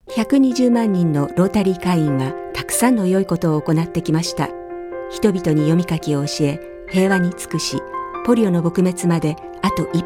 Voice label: radio; public information